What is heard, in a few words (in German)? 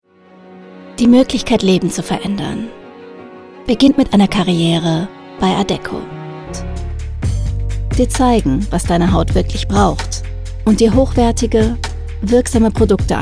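DE Corporate, voiceover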